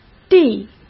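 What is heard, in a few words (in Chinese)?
"地", Mandarin Chinese; hsk; chinese-flashcards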